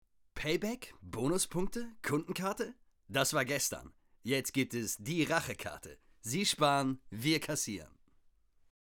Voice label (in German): Werbung